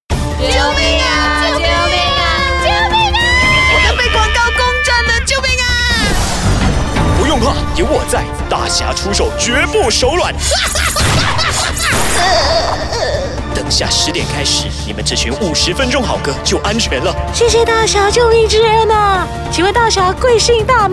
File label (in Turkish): Cince Erkek Mandarin Cin Simplified